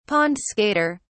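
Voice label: "pond-skater", British English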